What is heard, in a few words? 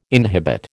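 "inhibit", American English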